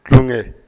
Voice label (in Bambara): "tulonke", Bambara